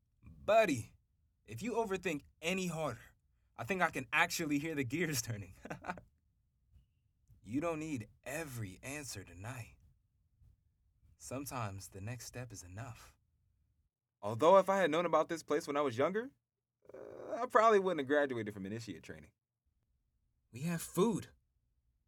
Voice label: Conversational

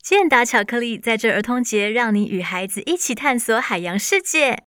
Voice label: corporate; female voice in Mandarin Chinese

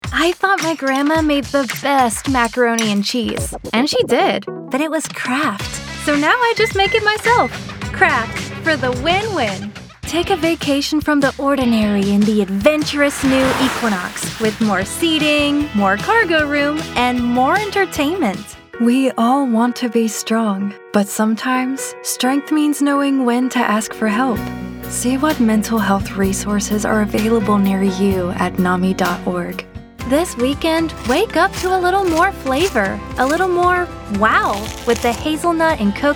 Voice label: Commercial

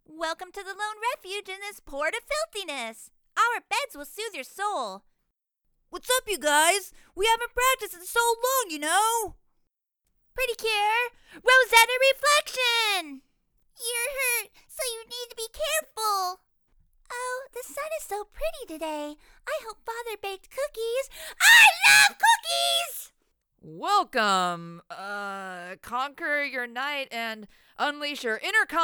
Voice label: Character

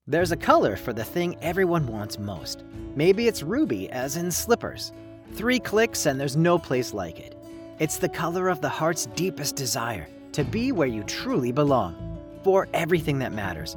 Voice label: Ad w music

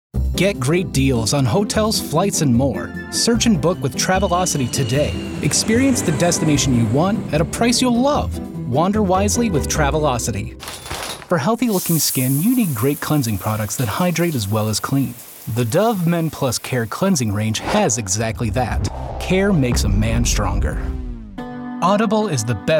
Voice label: Commercial & Advertizing

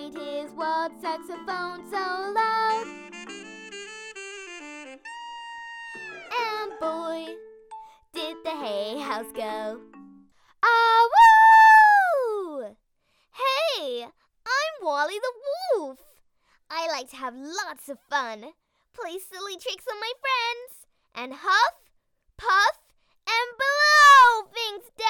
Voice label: with song, US; Wally The Wolf

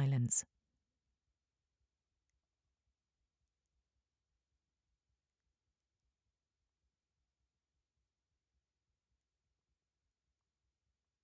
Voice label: Raw Studio Test

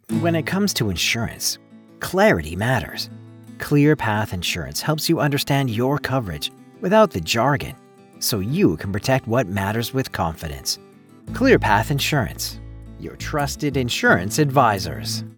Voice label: Clear Path Insurance w music

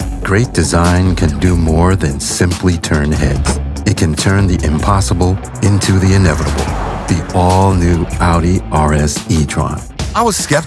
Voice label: Commercial Ad

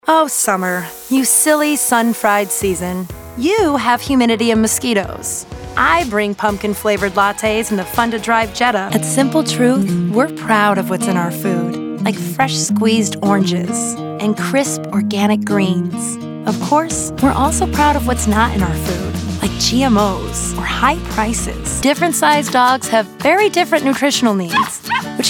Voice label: COMMERCIAL

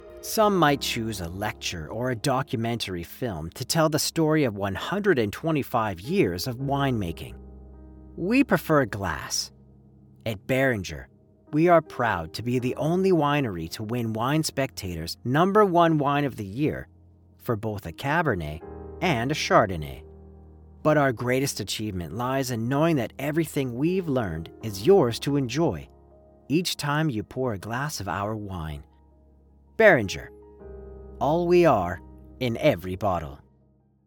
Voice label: Ad w music; Beringer

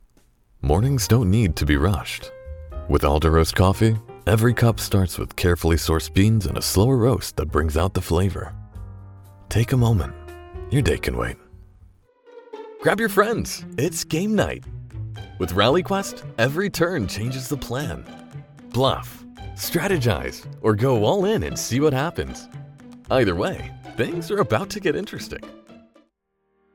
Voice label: commercial demo

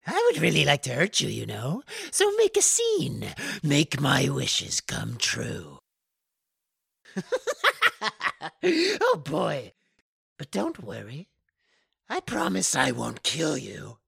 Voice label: Clown

